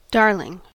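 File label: "darling", American English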